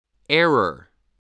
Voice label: "error", English